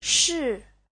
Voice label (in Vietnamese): "shi", Hán ngữ; (danh từ) việc